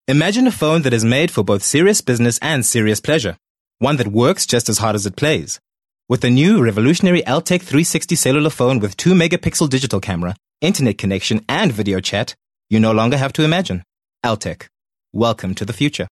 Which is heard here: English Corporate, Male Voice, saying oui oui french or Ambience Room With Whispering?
English Corporate